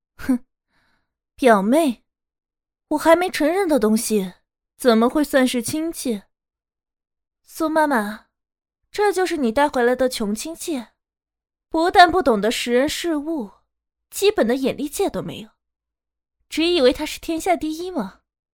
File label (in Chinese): 青年御姐音